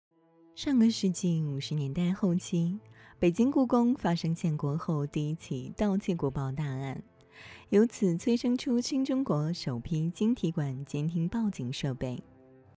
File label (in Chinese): 台湾腔